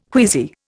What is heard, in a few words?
"queasy", Indian